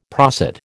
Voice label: "prosit", American